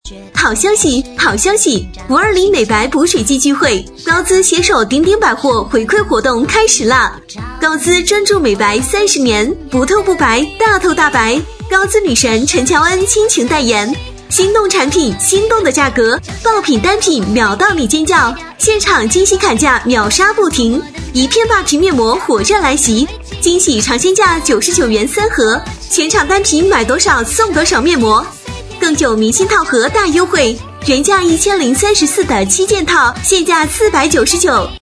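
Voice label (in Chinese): 女声化妆品店促销活动; 高姿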